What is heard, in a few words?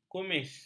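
"kumis", Malay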